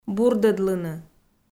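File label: "бурдӧдлыны", Komi, female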